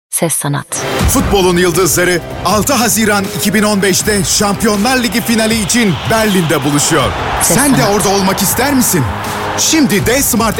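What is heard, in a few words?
Turkish, male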